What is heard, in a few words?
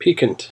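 "piquant", American English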